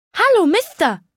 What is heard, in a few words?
Male unique dialogue greeting